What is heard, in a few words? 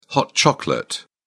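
"hot chocolate", English, British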